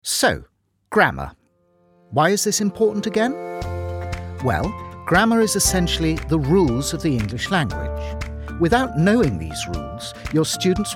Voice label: E-Learning

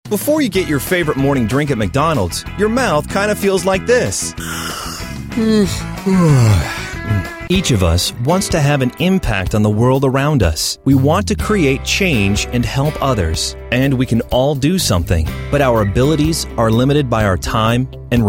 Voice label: COMMERCIAL

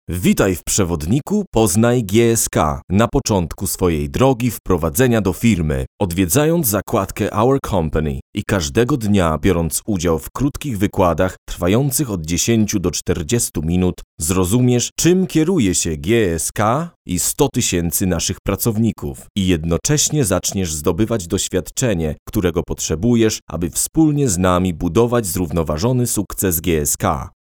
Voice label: eLearning Training

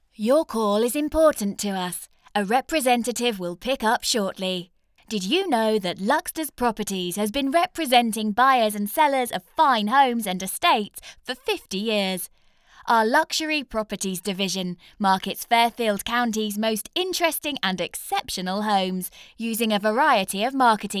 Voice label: IVR On Hold

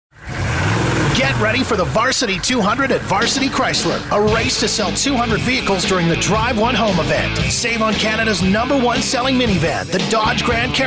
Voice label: SPOT; VARSITY CHRYSLER

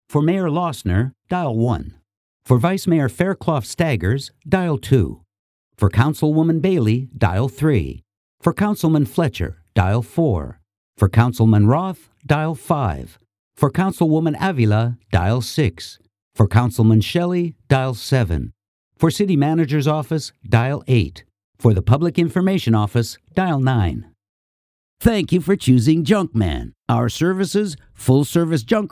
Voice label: on hold

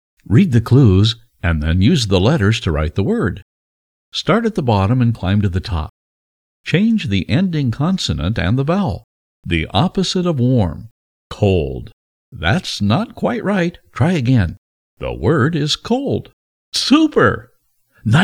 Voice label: Childrens Learning App

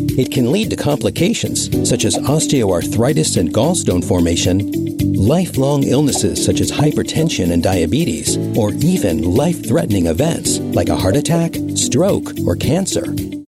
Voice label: Obesity Explainer

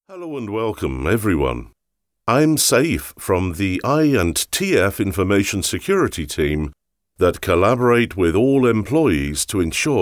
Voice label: elearning voicereel

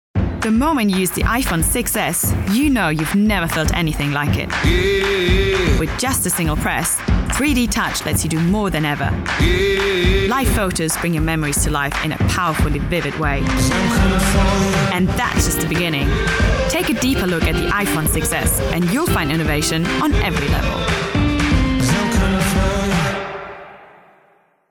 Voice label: English; Apple

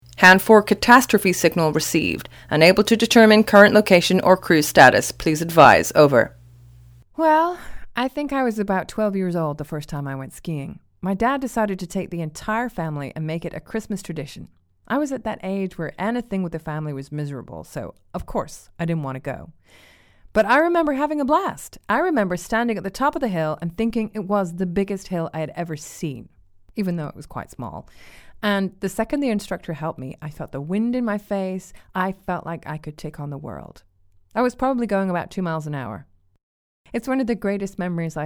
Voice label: american accent

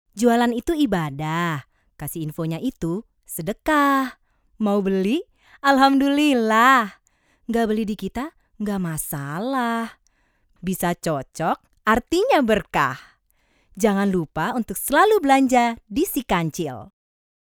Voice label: Promo; Si Kancil

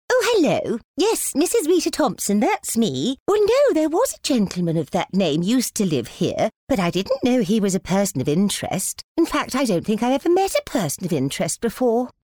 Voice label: Old lady character

